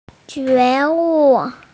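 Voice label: "awakening", female; jue2wu4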